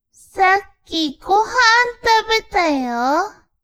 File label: woman